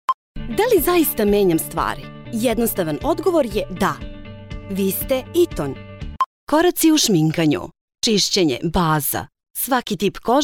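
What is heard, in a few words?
Serbian, female, narration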